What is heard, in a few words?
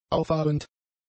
"auffahrend", German; adjectives